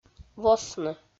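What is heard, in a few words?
"восны", Komi, female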